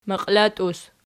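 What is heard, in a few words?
"maqilatus" nominative, Archi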